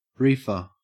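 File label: "reefer", Australian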